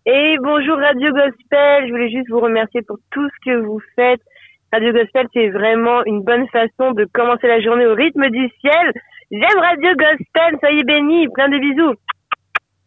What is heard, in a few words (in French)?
Témoignage sur Radio Gospel